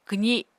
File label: "kwzi", Apatani